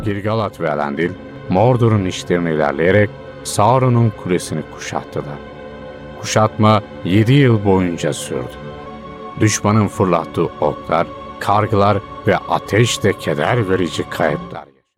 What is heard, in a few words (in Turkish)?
kitap seslendirme